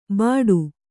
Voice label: "ಬಟು", Kannada

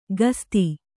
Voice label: "ಕಸ್ತಿ", Kannada

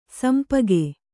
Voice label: "ಶಂಪಾಕ", kannada

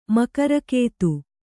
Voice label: "ಮಕರಕೇತು", Kannada

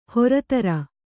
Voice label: "ಹರ್ತಾರ", Kannada

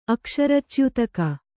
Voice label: "ಅಕ್ಷರಚ್ಯುತಕ", Kannada

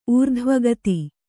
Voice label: "ಊರ್ಧ್ವಗತಿ", kannada

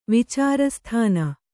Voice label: "ವಿಚಾರಸ್ಥಾನ", Kannada

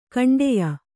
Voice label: "ಖಂಡೆಯ", kannada

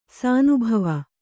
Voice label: "ಶಾನಬಾವ", kannada

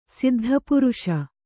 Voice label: "ಸಿದ್ಧಪುರುಷ", Kannada

